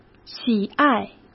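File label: "喜爱", Mandarin Chinese; chinese flashcards; hsk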